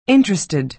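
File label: "interested", American English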